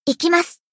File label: Battle Shout; Aris